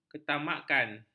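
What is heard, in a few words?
"ketamakan", Malay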